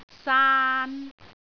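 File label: "saan3", Cantonese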